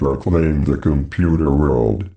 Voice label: Robotic or Stuttery or Spooky, VOCALS and SPOKEN WORD